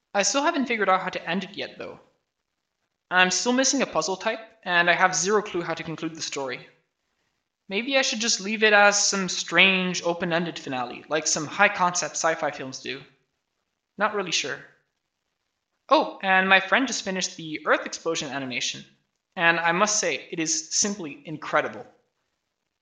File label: voice, narration